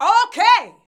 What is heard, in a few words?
"O.K.", SHOUTS; Best Service; Hyper Dance